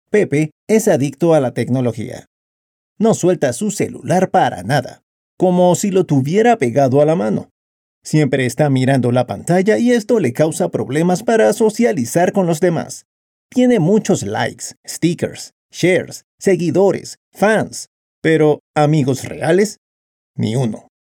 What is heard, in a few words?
SPANISH